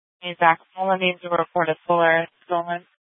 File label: Sheriffs Office, scanner